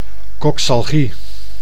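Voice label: "coxalgie", Dutch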